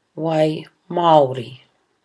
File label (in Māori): "wai maori", Māori